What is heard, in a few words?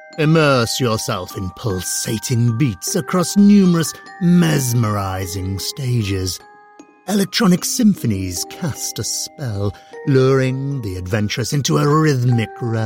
voiceover monster theme park advert